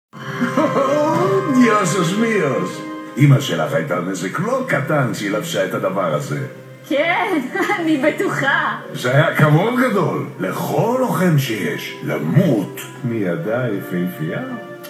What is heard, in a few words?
hebrew